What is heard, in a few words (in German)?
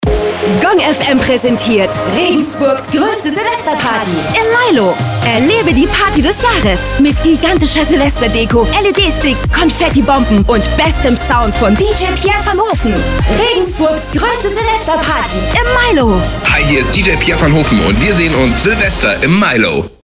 Radiospot Jung